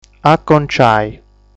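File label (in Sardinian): "Aconciai", Sardinian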